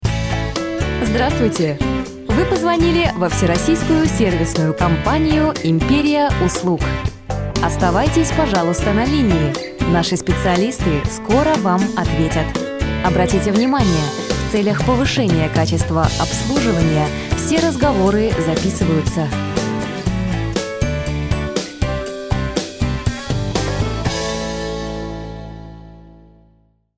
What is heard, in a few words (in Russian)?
автоответчик